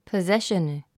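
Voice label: "possession", English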